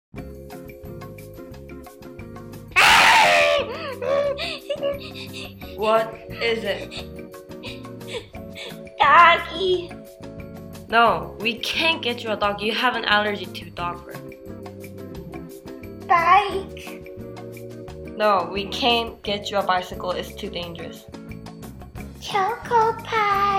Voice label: commercial; choco pie